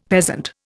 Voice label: "peasant", Indian; Dictionary